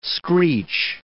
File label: "screech", English